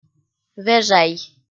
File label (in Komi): "вежай" kpv f